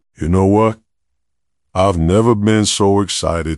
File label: happy; fertig classic games